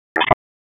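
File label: Raleigh Police Downtown District Tactical, p25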